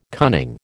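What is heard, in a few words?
"cunning", American English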